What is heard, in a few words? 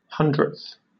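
"hundredth", British English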